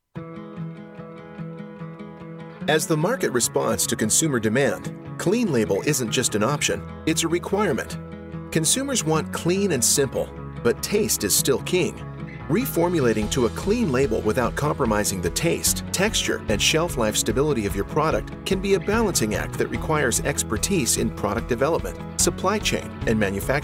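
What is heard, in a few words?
Explainer, Male Voice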